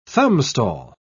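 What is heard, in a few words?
"thumbstall", British English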